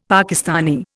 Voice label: "Pakistani", Indian; Dictionary